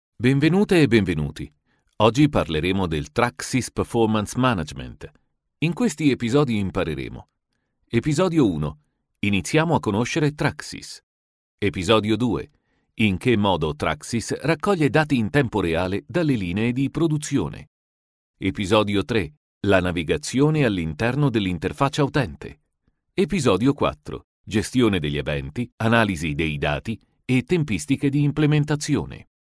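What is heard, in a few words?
Italian, e-learning; GoLocalise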